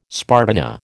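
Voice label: "spartina", American English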